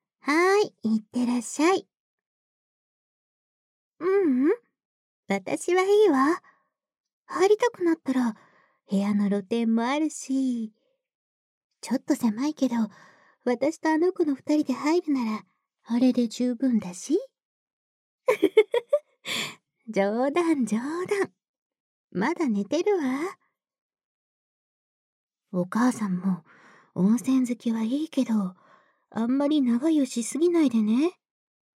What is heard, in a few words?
Whisper, ASMR